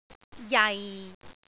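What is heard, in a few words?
"jai6", Cantonese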